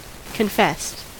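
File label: "confessed", American English; pronunciations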